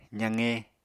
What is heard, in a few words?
"zaqe", Apatani